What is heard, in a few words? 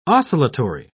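"oscillatory", British English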